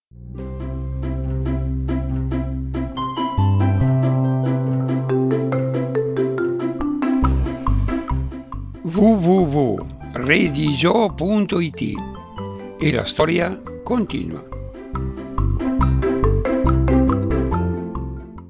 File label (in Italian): dialetto; tasse